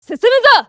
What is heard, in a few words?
japanese Moving; azurlane-hoi4; azurlane-tj